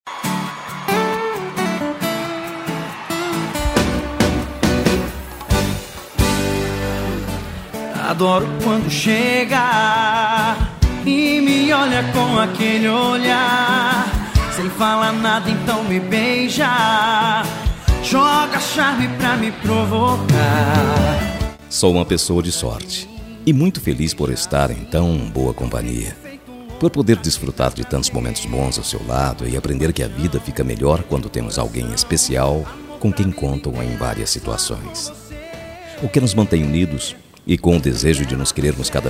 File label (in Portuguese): DIA DOS NAMORADOS SUAVE MASC, telemensagem dia dos namorados suave